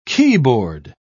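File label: "keyboard", English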